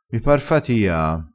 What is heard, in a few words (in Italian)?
"pare fatia", crespinese